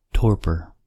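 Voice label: "torpor", US English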